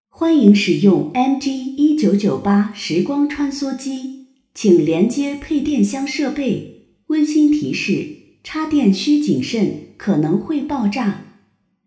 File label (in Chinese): 系统女声 机器 系统童声